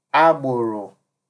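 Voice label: agboro, Naija, male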